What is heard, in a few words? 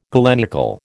"galenical", American